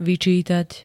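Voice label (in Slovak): "vyčítať", Slovak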